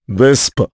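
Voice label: Vox, voices, Japanese; Gau; kingsraid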